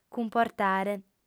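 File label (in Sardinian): "cumportare", female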